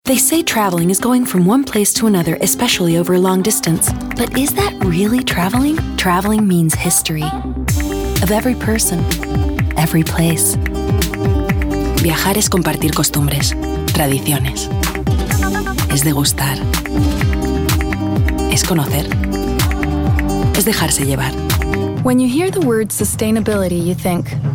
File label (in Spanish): Bilingüe